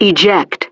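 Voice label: "Eject" English, speech; DCS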